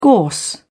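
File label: "gorse", English, British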